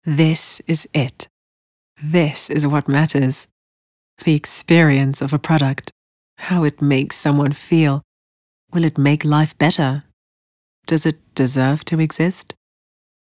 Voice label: English Dramatic